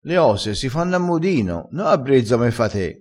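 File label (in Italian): "fa le ose a brezza", vocabolario dialettale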